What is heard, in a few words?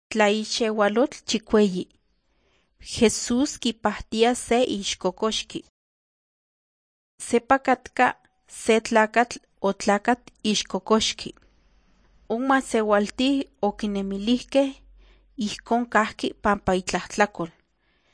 nahuatl guerrero tlapa; the bible league nt drama